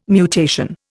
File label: "mutation", Indian